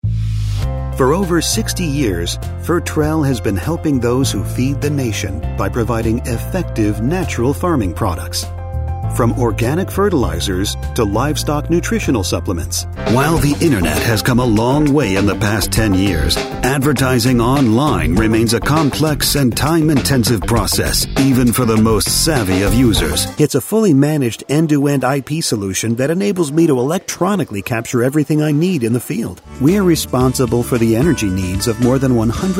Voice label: Narration